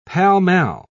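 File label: "pall-mall", British English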